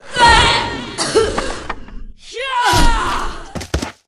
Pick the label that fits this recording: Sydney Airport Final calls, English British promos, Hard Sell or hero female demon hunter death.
hero female demon hunter death